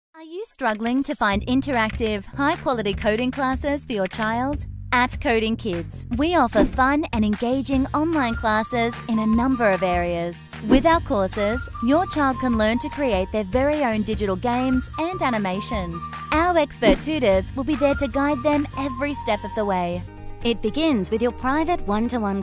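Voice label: English Australian, female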